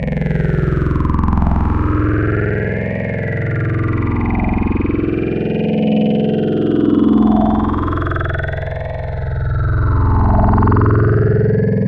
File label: Vocal Talking, Wavetables; Synthetic's Serum Collection; ESW Core Wavetables; Echo Sound Works Core Tables